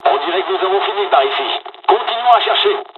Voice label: dialog, dialogs french; War Thunder